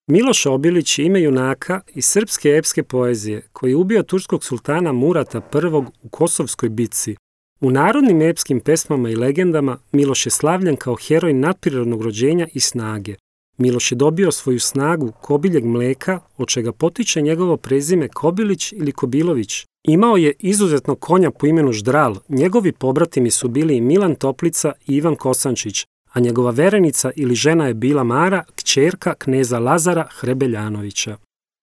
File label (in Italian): Serbo Uomo; 25-50; MALE; SERBIAN; institutional, smooth, commercial